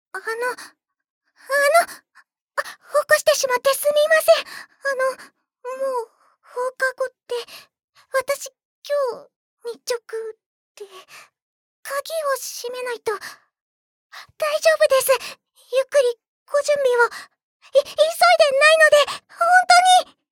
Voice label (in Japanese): おどおど系少女